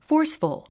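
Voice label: "forceful", English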